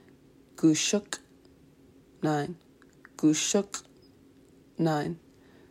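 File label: "nine", Tlingit; numbers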